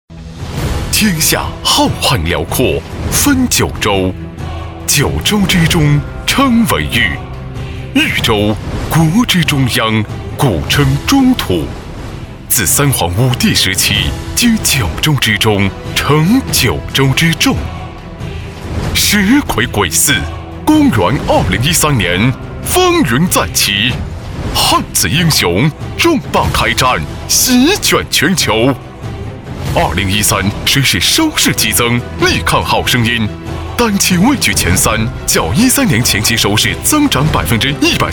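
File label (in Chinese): 国语 男声 宣传片 深沉浑厚 大气浑厚磁性 沉稳 科技感; 九州谁与争锋; 专题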